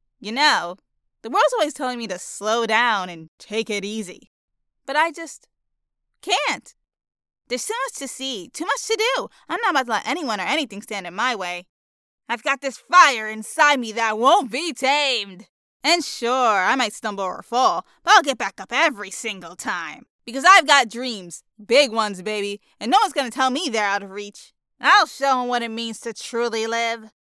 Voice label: Spunky Female; Adult Female